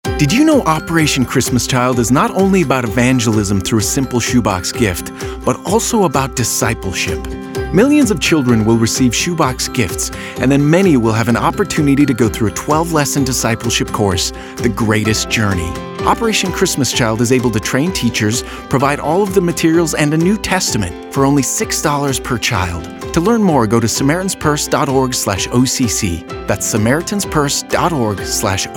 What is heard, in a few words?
30 sec male voice; The Greatest Journey